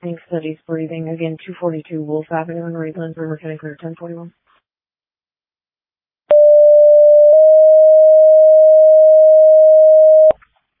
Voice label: Ambulance, dispatch; Denver